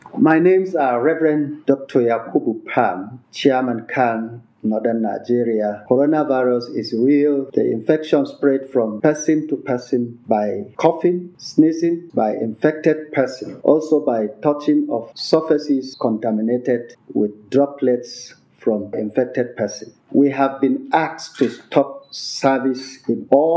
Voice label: English; PLATEAU